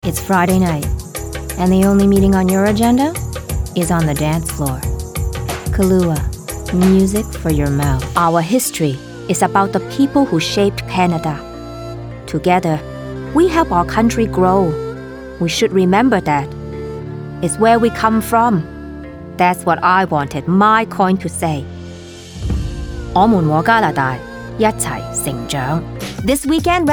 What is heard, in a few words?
commercial female